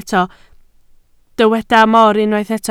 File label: "mor", Welsh